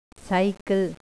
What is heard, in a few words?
bicycle, kannada words